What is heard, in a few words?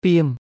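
"ពាម", Khmer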